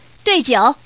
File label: dui9, woman; guizhou